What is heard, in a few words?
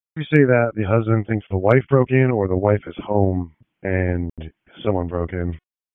Police Northeast District Disp, p25; Raleigh; wake